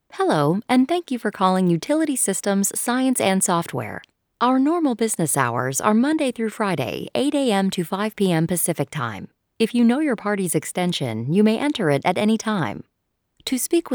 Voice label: IVR sample